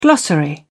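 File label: "glossary", English, British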